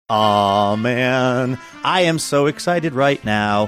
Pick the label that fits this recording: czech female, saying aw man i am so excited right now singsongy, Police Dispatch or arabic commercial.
saying aw man i am so excited right now singsongy